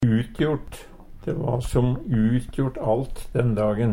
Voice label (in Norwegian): utjort, Numedalsmål; umogleg; Adverb